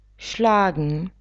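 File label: "schlagen", German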